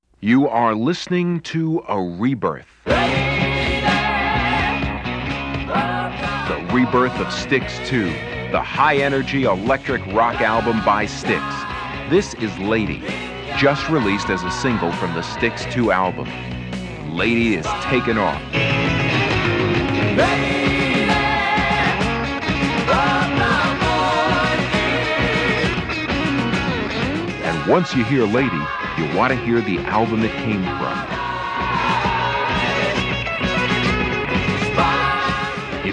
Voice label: Radio Commercials LP; 1974